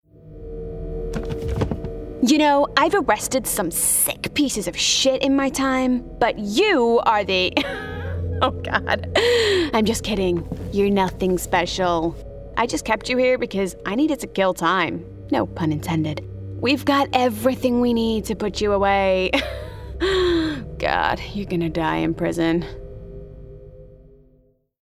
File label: Gaming Detective US; Detective – American